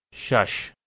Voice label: "shash" Balochi, 6

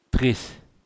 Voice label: "tris", Occitan

